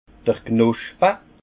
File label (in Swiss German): "knospe", alsace; vin; bourgeons